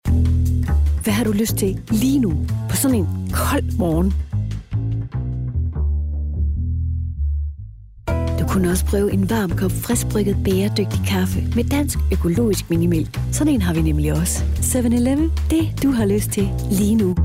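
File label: dk commercial, Danish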